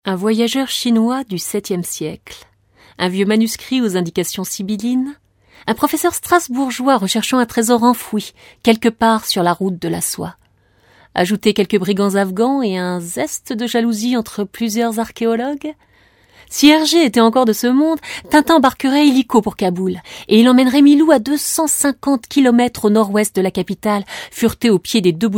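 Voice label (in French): documentaire historique, voix off; Documentaires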